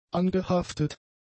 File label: "angehaftet", German; adjectives